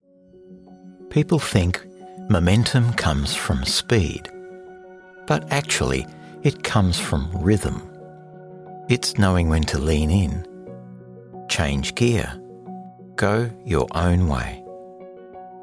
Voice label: Radio / TV Imaging, Smooth, Authentic & Trustworthy, male; Bicycle Brand